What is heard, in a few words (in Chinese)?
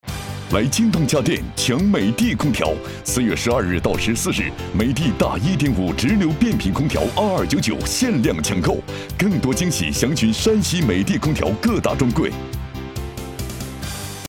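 男,广告,空调促销; 美的空调山西促销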